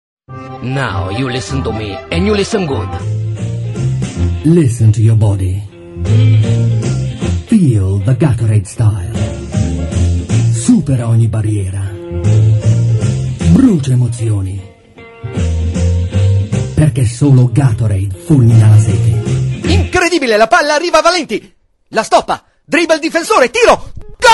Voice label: italian eng coms